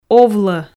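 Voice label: "овло", Komi, female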